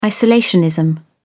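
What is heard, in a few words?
"isolationism", British English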